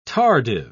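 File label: "tardive", British English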